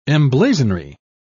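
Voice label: "emblazonry", British English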